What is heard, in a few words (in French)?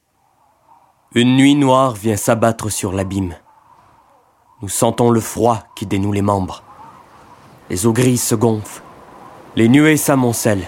Conteur; L'Énéide; AgenceBD